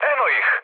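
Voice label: Serbian enemy detected mood low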